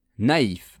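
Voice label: "naif", French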